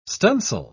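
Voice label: "stunsail", English, uk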